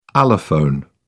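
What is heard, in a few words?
"allophone", British English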